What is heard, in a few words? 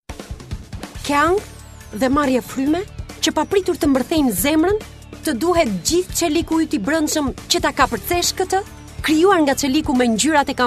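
Albanian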